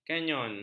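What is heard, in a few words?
"kanyon", Malay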